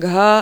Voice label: "gha", marathi